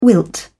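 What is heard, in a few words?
"wilt", British English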